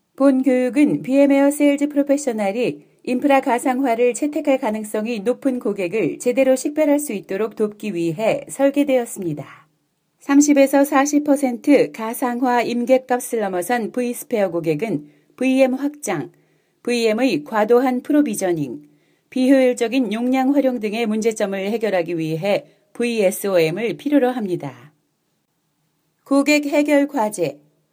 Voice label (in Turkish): Korece Kadin; seslendirme; kadin sesler